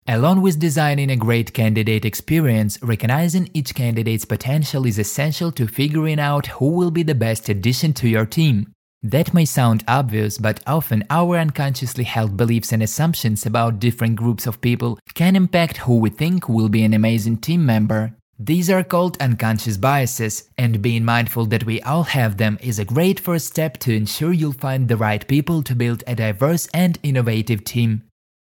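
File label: English Accented, E-Learning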